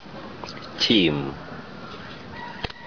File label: "chim", Tobian; head